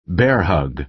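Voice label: "bear hug", American English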